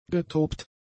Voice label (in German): "getobt", german; adjectives